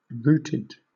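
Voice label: "routed", British English; pronunciations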